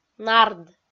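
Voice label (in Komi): "нард" Komi, female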